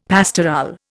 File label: "pastorale", Indian; Dictionary